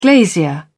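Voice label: "glazier", British English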